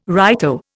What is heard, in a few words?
"righto", Indian